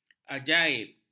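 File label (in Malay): "ajaib", Malay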